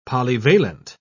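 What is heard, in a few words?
"polyvalent", British English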